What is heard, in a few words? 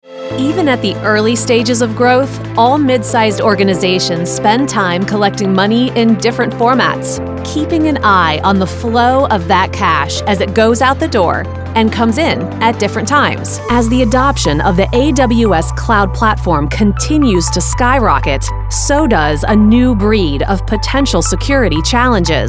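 Narration, voiceover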